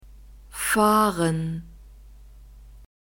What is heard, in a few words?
"fahren", German; verbs present tense irregular